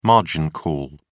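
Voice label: "margin call", English, British English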